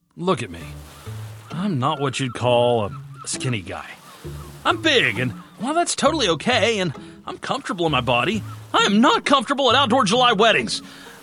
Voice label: Commercial